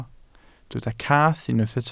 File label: "cath", Welsh